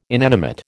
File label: "inanimate", American